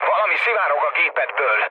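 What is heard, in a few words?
Hungarian tank damaged mood low, speech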